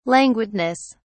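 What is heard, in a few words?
"languidness", British English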